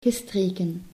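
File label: "gestreken", Dutch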